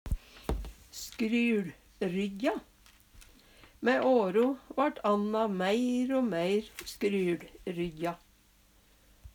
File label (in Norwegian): skryLryjja, Numedalsmål